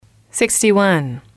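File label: "sixty one", English, female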